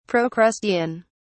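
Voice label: "procrustean", English, British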